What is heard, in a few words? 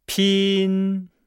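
"pin", Chinese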